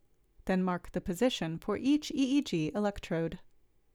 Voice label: Voiceover